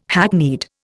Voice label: "hackneyed", Indian

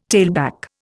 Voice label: "tailback", Indian

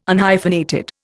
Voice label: "Unhyphenated", Indian

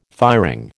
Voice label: "firing", American

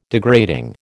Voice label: "degrading", American

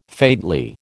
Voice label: "faintly", American

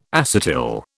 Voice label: "acetyl", British

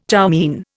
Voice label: "chow mein", Indian

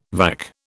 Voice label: "vac", British

